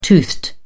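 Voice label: "toothed", British English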